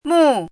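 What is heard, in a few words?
"mù", Chinese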